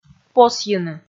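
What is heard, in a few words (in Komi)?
"посйыны" Komi, female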